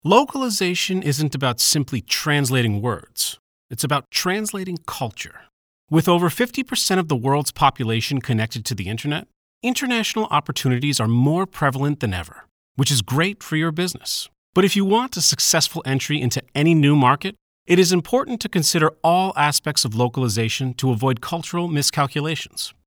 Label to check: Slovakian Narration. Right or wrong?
Wrong